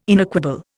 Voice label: "inequable", Indian

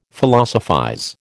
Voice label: "Philosophize", American

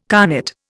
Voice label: "carnet", Indian

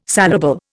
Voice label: "saleable", Indian

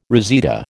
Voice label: "reseda", American

